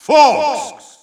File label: Russian Announcer; Fox; SSBU